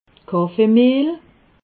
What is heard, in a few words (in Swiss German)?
"kaffeemehl", alsace